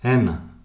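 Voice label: "ena", male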